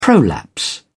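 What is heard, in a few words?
"prolapse", British English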